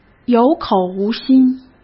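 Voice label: "有口无心", Mandarin Chinese; chinese flashcards; hsk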